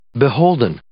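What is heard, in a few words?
"beholden", English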